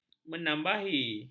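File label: "menambahi", Malay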